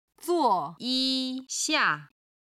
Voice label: "zuo4yi1xia4", Chinese